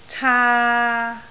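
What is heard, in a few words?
"caa3", Cantonese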